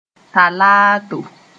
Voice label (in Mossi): "talaato", moore